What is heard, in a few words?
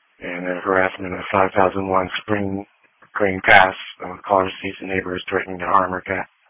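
Police Patrol Dispatch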